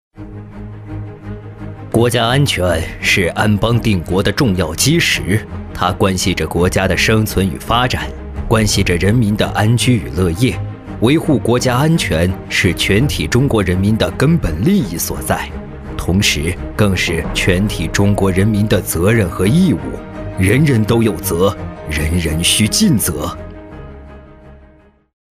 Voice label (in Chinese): 男 宣传片 中年音; 国家安全; 年轻多变; 大气浑厚